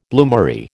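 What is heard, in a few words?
"bloomery", American English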